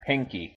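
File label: pronunciation "pinky", English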